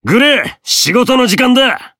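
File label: Voice variable chant, Japanese; hardy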